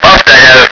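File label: "повторяй", radio; svencoop; paranoia; molot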